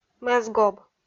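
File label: "мӧсгоб", Komi-Zyrian, female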